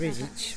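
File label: "bigity", Teotitlán del Valle Zapotec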